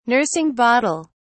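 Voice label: "nursing bottle", English, British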